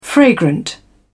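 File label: "fragrant", English, British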